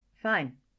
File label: girl fine; Inseminator